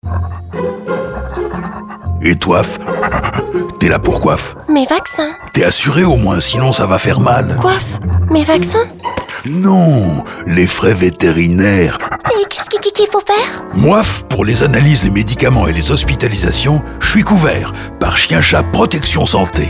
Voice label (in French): Pub radio Chien chat protection santé aigu